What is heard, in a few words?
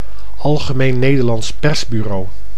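"Algemeen Nederlands Persbureau", Dutch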